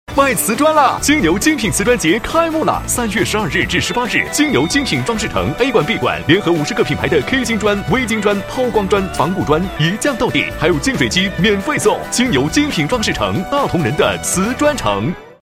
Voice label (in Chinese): 男 广告, 国语男声配音 磁性